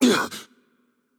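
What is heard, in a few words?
Brazilian Portuguese hurt light voice; bloom minigame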